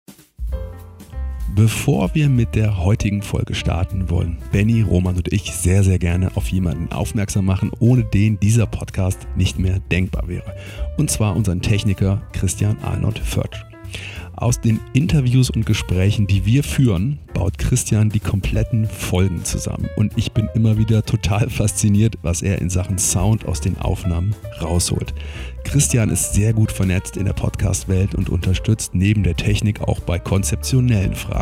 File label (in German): Werbung